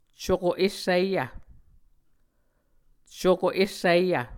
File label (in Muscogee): "cuko essiyv", Muscogee